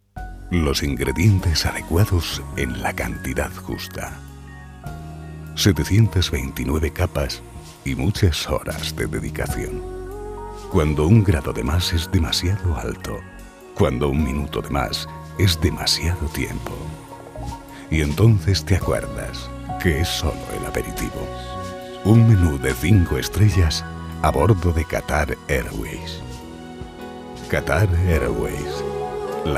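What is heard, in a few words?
comercial, Spanish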